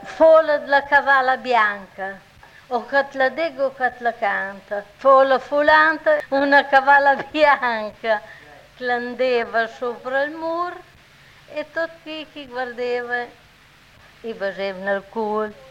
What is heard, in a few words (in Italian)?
filastrocca; fola dla cavala bianca